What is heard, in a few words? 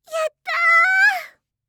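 "yattaa", Japanese; yorokobu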